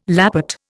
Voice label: "lappet", Indian

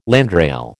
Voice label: "landrail", American

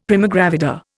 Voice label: "primigravida", Indian; Dictionary

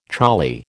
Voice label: "choli", American

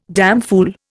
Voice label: "damfool", Indian

